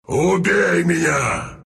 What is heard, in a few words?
Wraith death ru; Dota 2